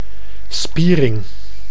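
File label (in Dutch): "spiering", Dutch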